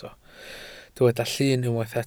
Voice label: "llun", Welsh

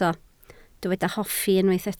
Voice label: "hoffi", Welsh

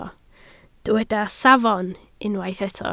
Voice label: "safon", Welsh

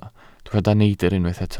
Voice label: "neidr", Welsh

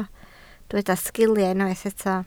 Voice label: "sgiil", Welsh

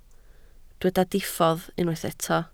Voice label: "diffodd", Welsh

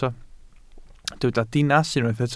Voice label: "dinas", welsh

